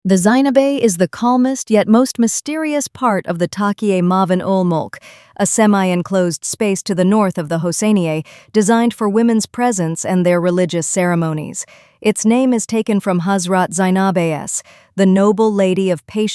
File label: zeynabiyeh english; zeynabiyeh moaven al molk